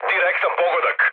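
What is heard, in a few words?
Serbian bomb success mood med, speech; War Thunder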